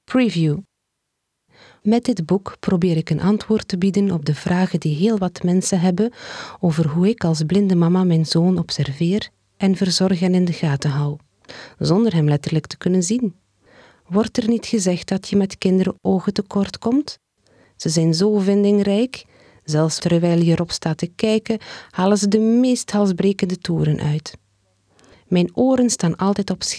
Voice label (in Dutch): luisterboek; Graag zien